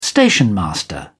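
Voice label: "stationmaster", British English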